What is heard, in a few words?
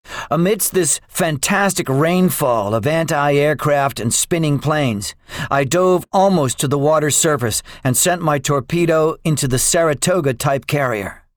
debriefing, english; War Thunder